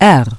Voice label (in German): "R geschlossen", Navigation; DISTEFORA Navigation; Mobile Navigator Notebook